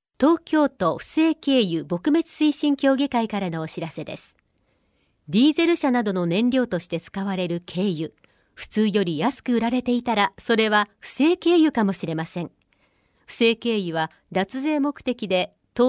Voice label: radio